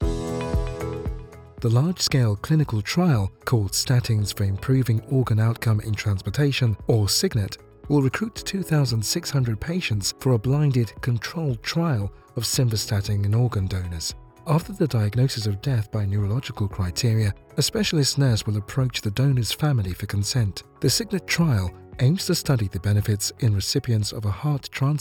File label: English British medical narration; african english